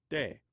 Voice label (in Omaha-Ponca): "te", Omaha language